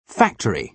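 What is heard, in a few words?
"factory", British English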